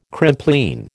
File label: "Crimplene", American